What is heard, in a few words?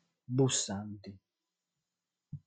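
"Bussanti", Sardinian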